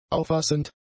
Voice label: "auffassend", German; adjectives